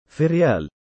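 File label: "firyaal" female name, Arabic; فِرْيَال; firyāl; フィルヤール; Firyal、Firyaal; 首が綺麗な美人、美しい首をした佳人、首が美しい女性、艷やかな美人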